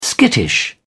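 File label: "skittish", British English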